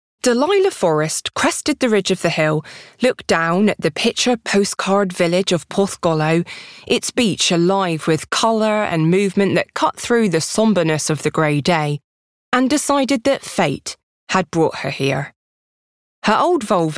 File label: Audiobooks